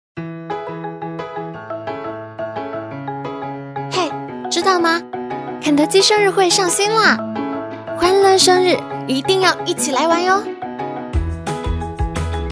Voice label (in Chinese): 广告促销宣传类